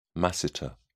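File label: "masseter", British English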